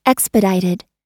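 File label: "expedited", US English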